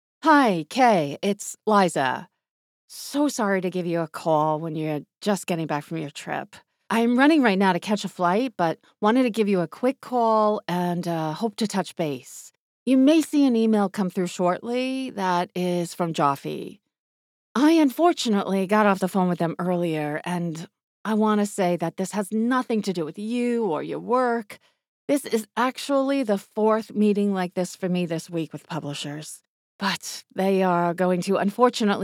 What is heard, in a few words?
Light New York Accent Narration Conversational Authentic